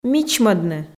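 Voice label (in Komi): "мичмӧдны", Komi, female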